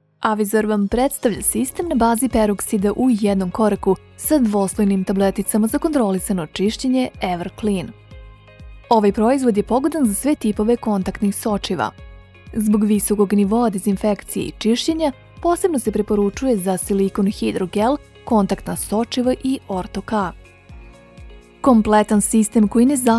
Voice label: Explainer Serbian; EverClean